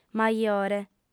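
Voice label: "majore", female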